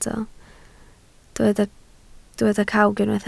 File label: "cawg", Welsh